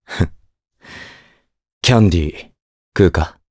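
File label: vox dia, Japanese; voices heroes jp; Crow; kingsraid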